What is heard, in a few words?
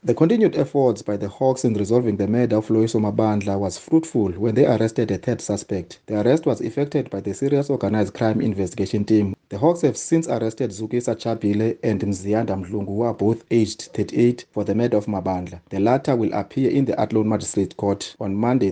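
English; Third Arrest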